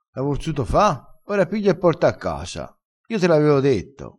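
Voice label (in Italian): "piglia e porta", dialettale, crespinese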